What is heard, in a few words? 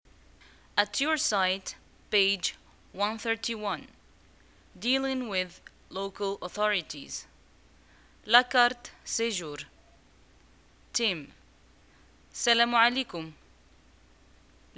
dialogue, Arabic, North African, Moroccan